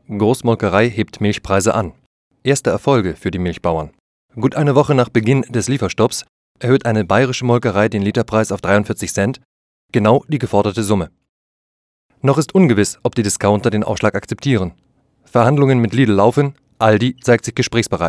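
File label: german male narration; voice demos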